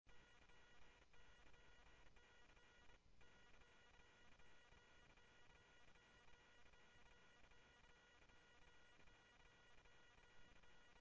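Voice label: police department, scanner audio; PHOENIX PD-200 A Phoenix PD; ThompsonPeakHigh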